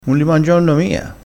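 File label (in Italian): "mangionno", dialettale crespinese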